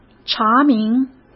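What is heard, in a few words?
"查明", Mandarin Chinese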